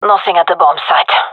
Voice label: bombsite clear, gendarmerie fem; vo